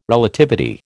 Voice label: "Relativity", American

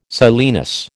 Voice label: "silenus", American

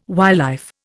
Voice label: "wildlife", Indian

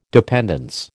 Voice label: "dependence", American

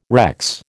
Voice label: "recce", American

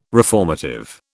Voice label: "reformative", British

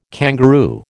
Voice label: "kangaroo", American

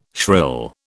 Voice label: "shrill", British

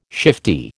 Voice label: "shifty", American

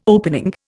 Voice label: "opening", Indian

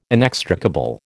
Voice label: "inextricable", American

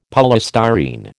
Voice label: "polystyrene", American

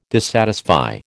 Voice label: "dissatisfy", American

